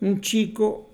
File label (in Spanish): "un chico", mx